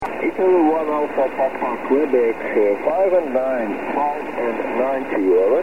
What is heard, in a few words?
21 ssb